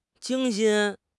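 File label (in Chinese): "精心", Chinese; HSK6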